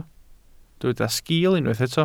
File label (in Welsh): "sgil", Welsh